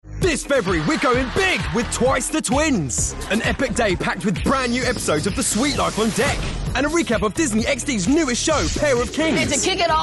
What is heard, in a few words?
english british promos